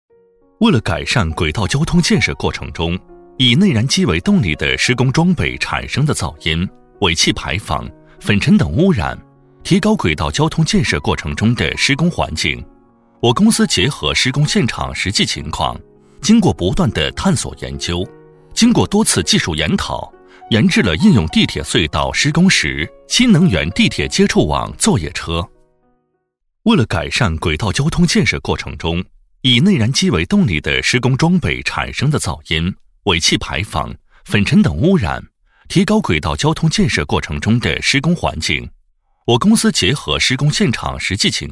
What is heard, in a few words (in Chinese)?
男 课件 工程解说 接触网作业车